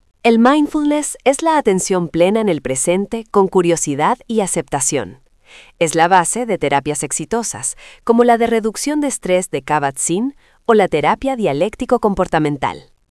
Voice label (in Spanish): locucion